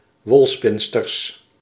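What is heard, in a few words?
"wolspinsters", Dutch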